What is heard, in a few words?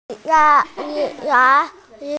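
"zhi2 ye4", Mandarin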